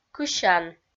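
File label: "кысян", Komi, female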